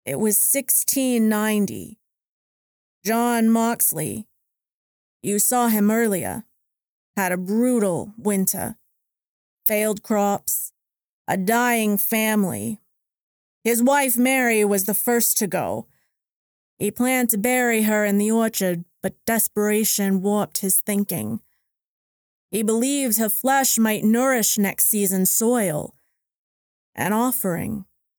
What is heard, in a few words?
Centuries Old Witch